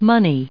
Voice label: "money", us

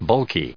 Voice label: "bulky", en us

